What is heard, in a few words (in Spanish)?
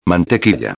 "mantequilla", espanhol; palavra do dia